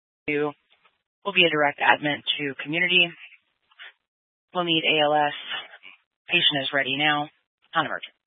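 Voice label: Fire Dispatch, scanner audio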